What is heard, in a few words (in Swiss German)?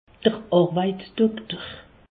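"arweitsdokter", Alsace, Haut Rhin; medecine; médecin du travail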